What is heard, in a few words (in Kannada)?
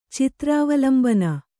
"ಚಿತ್ರಾವಲಂಬನ", Kannada